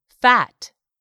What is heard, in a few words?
"fat", English; 3000 words